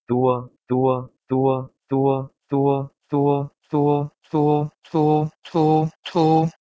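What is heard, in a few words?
Proto-Indo-European tua to Tajik tu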